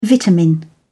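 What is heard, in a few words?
"vitamin", English, British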